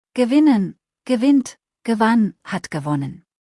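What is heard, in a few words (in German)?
"gewinnen" German word; verben kurzliste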